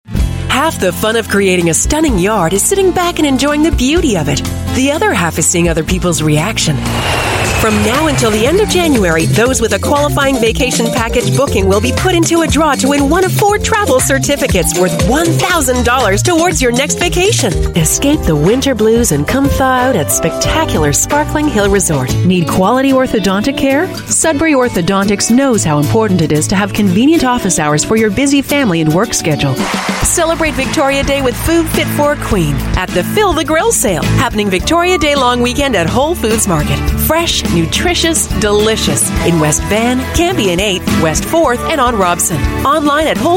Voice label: US English Commercial, Female